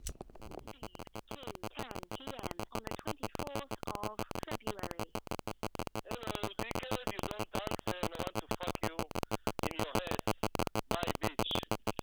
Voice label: angry telemarketer